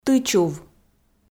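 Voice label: "тычув", Komi, female; audio dict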